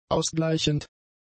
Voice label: "ausgleichend", German; adjectives